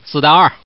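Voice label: Man, four with two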